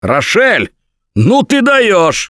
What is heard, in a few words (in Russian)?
nice shot, left4dead2 russian, Survivor Voice; producer; Gambler